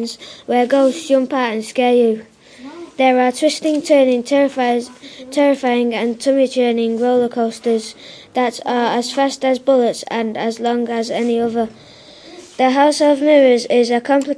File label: theme park radio advert